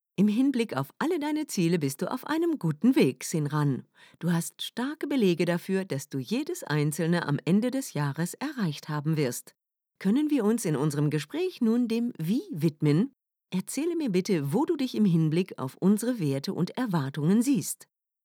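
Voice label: German Female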